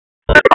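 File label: Police Special Operations Tactical; wake; p25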